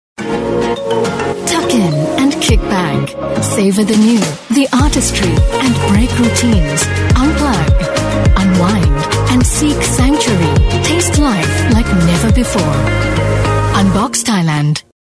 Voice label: Asian English Commercial; Unbox Thailand Gastronomy

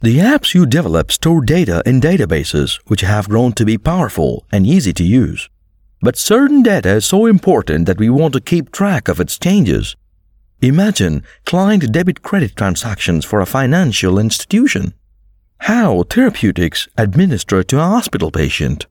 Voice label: English Indian Explainer Video

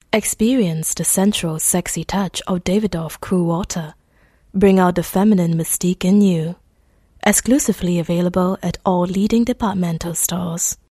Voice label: English Singaporean Female; Davidoff

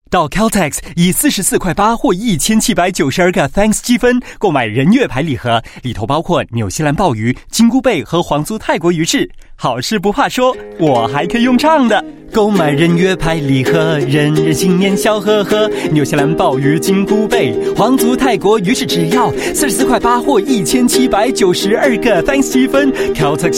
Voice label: Mandarin Ukelele Tune Friendly, Male; China; Caltex

